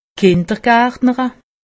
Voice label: "kindergartnere", alsace; medecine

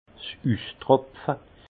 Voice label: "ustropfe", alsace; vin; gouttage

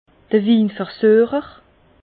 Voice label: "winversuecher", Bas Rhin; vin; goûteur

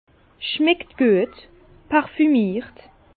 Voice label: "schmeckt guet", Bas Rhin; vin

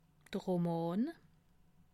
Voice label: "roman", alsace

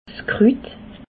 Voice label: "krut", Alsace

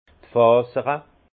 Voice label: "fasere", alsace; vin; filaments

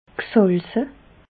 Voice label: "gsalze", alsace

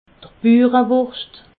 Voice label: "burewurscht", alsace

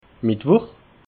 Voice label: "mittwuch", alsace

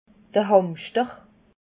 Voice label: "hamschter", alsace

